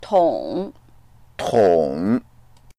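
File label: "tong3", Mandarin Chinese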